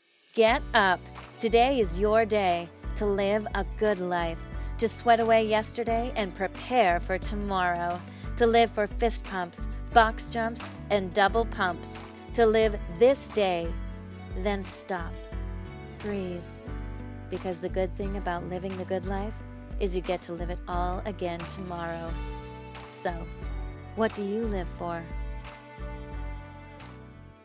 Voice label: Live Well Commercial The Good Life